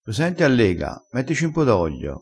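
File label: "allega", Italian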